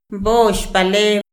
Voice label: "mboxpale", Mazahua; INALI; AprendeMazahua